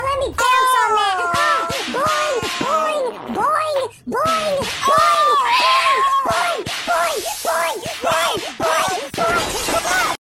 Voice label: freaky chipmunks